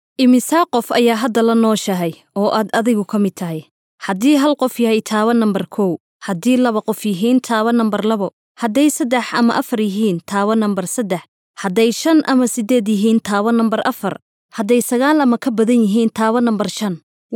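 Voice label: Somali narration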